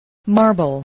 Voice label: "marble", spelling voice